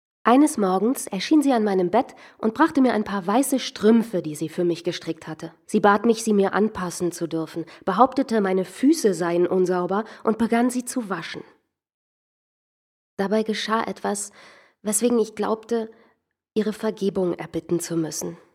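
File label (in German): Hörbuch Memoiren; casanova; Muttersprache Deutsch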